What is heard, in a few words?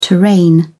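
"terrain", English, British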